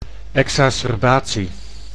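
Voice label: "exacerbatie", Dutch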